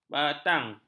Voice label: "batang", ms